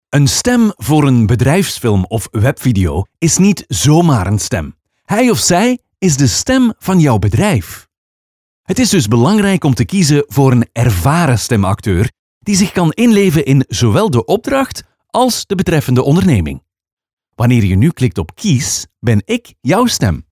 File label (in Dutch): BEDRIJFSFILM